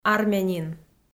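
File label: "армянин", Komi, female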